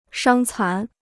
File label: "伤残", Chinese